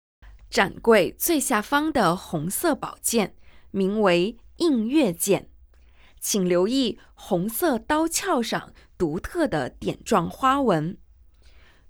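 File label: Mandarin, audio guide; architechure collection heritage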